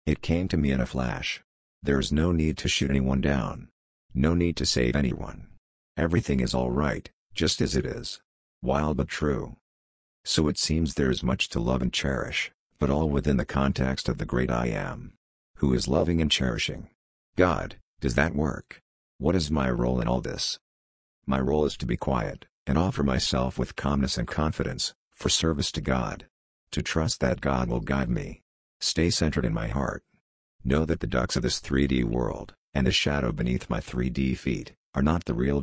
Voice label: voice rate slow pitch low low emphasis; maldek; william